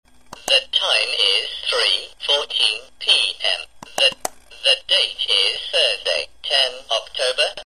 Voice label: talking calendar clock